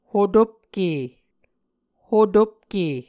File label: "hotopke", muscogee